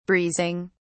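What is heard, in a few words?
"breezing", British English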